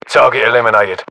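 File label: inform killfirm infantry, battlechatter, British; localized english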